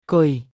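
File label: "គយ", Khmer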